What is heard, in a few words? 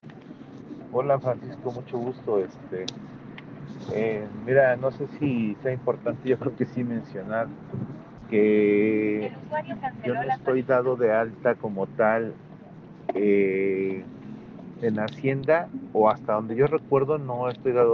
whatsapp ptt